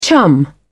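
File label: "chum", British English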